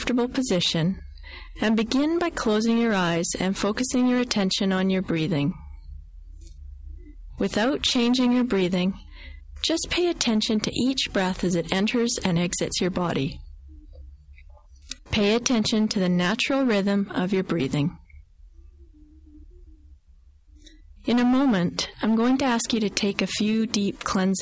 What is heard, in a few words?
relaxation imagery